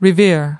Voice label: "revere", us

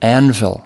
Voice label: "anvil", us

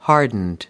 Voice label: "hardened", US

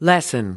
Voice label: "lessen", us

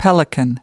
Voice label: "pelican", us

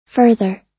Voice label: "further", us

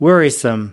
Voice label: "worrisome", us

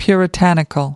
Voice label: "puritanical", us

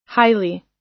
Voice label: "highly", us

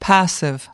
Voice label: "passive", us

